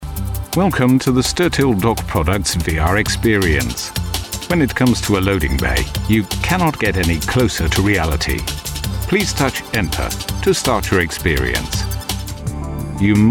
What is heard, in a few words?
Deep Male, elearning voices